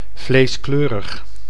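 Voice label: "vleeskleurig", Dutch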